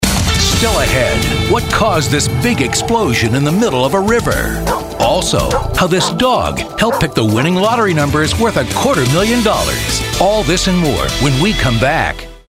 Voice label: announcer

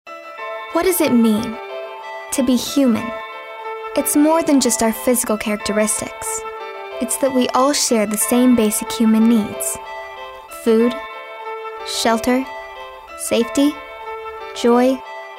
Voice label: kids; liveunited

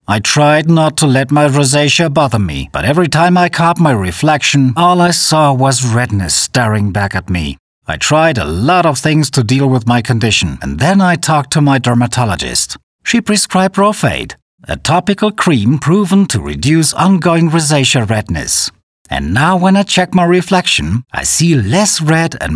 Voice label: Commercial; Rhofade

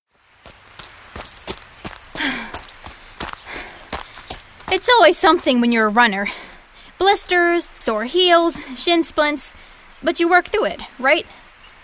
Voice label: conversational

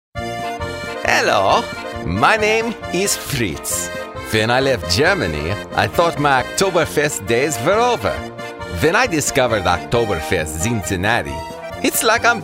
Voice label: german characters

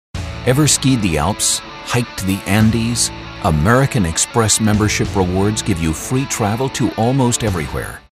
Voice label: upbeat motivational

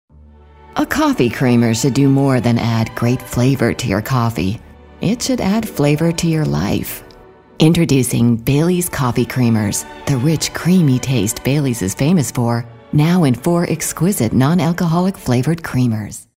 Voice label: raspy

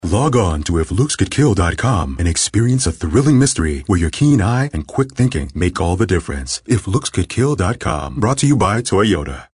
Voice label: tough gritty

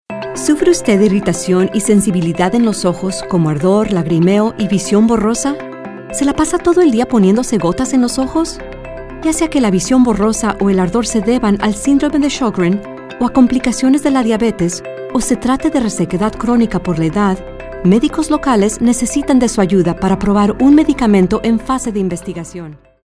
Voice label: informative

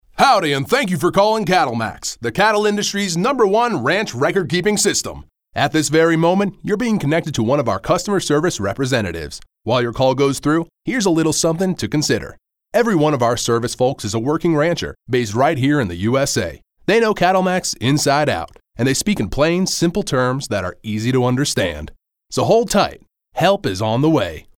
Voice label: Phone Hold, friendly

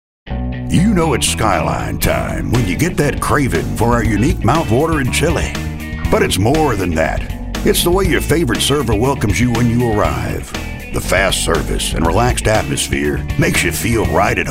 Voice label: raspy gravelly

